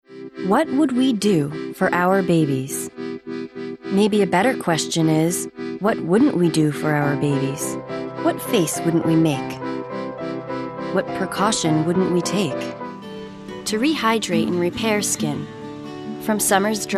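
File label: commercial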